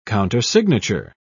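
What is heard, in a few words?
"countersignature", British English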